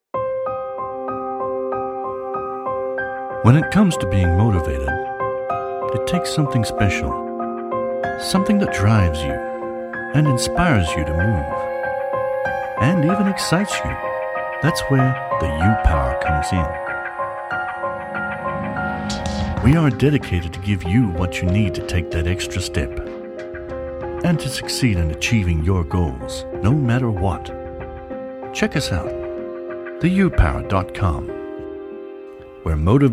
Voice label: North American voice